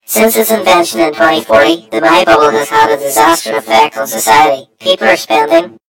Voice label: story voiceover; yumpod